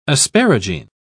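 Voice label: "asparagine", English, British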